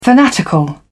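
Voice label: "fanatical", British English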